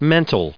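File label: "mental" English, American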